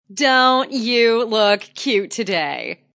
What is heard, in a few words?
Wmn Sys Dnt U Lk Ct Emph, Woman Says Don't You Look Cute Emphatic; Talking Sound Effects